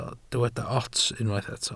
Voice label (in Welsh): "ots", Welsh